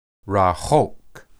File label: "rahoq", Hebrew